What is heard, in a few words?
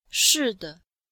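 "shi4de5", Chinese, female; Cours de Chinois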